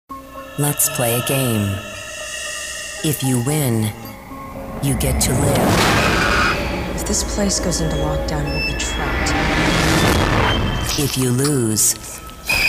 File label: TV Promo